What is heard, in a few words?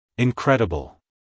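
"incredible", American English, male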